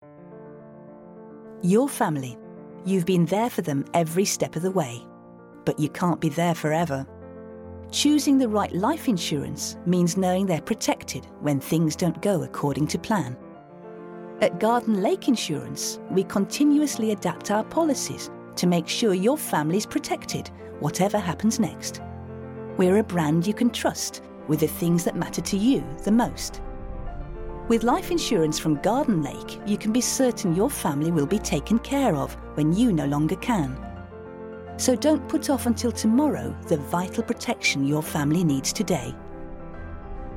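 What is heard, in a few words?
comercial, British English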